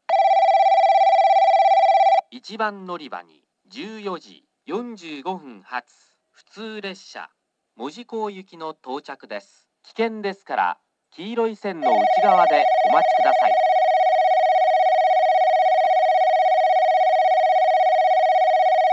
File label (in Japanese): 接近放送＋ベル 男声